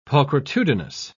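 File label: "pulchritudinous", British English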